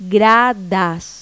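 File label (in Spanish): "gradas", Spanish; Pipo-Aprende musica con Pipo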